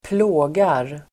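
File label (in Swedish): "plågar", Swedish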